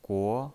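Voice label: "guo", Mandarin Chinese, second tone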